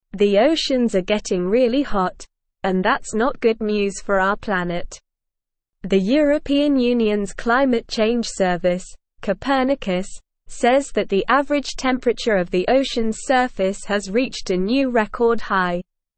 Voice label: English Upper Intermediate SLOW Reading; Oceans Reach Highest Recorded Temperature Worsening; English Newsroom